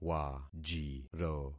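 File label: "wajiro", concatenated syllables; Affixing experiment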